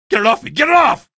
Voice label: alert head, male; video games; npc; source engine; episodic; episode 1